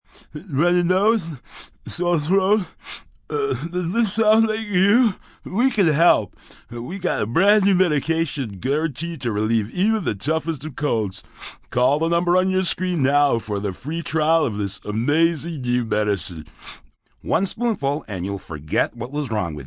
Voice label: Medication M, Commercial, cold medication, male announcer stuffed up, advertisement; (human voice speaking); Broadcast